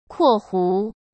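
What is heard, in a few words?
"括弧", Mandarin Chinese; chinese flashcards; hsk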